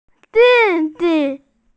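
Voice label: "di4di5", Mandarin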